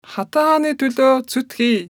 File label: mongolian Queen; age2